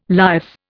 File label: "lias", Indian